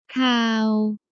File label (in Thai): "คาว", Thai